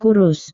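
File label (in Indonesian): "kurus", Indonesian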